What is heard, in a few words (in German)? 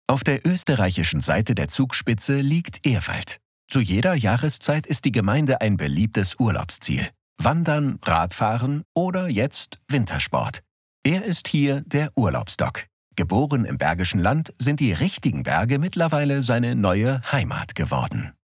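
Kommentar, Männer; Urlaubs Docs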